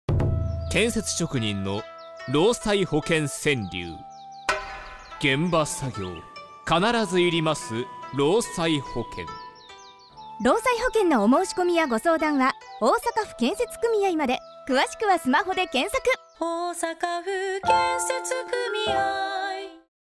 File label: radio; osaka